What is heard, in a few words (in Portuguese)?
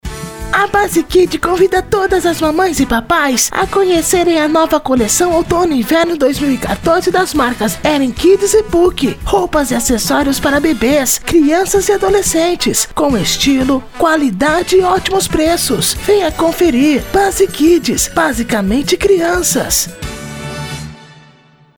infantil